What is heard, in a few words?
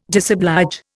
"disoblige", Indian